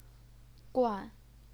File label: "guan4", chinese pinyin